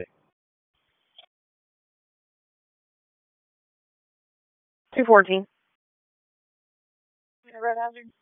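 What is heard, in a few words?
Raleigh Police Northeast District Dispatch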